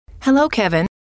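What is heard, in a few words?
"hello", female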